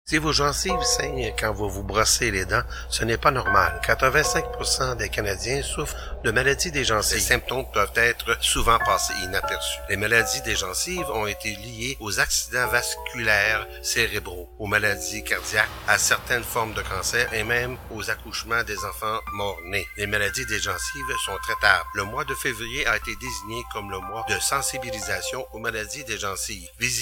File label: PSA French